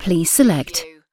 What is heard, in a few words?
UK female, "please select"; lynne publishing